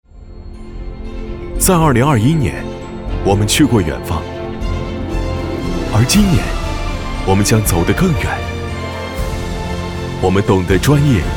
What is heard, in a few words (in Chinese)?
男 广告 品牌宣传